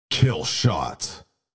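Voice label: killshot, Video Game Announcer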